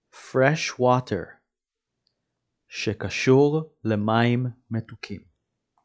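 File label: "freshwater" English, "שקשור למים מתוקים" Hebrew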